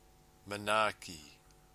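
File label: "Manaaki", Māori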